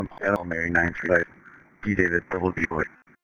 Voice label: Police Sheriff Dispatch